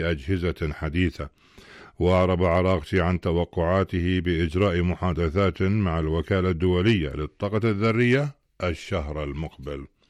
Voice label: Arabic